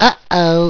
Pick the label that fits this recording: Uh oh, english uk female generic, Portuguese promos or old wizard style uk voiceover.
Uh oh